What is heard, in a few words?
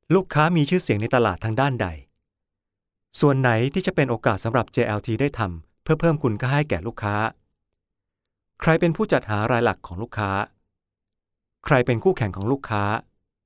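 Thai, male, narration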